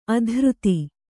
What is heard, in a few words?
"ಅಧರಿತ", Kannada